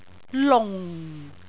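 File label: "lung4", Cantonese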